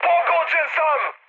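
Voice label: Serbian aircraft destroyed mood high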